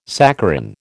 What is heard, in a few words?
"saccharin", American